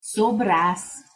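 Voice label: "szobrasz", Hungarian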